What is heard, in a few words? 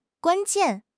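"guan1 jian4", Mandarin Chinese; HSK4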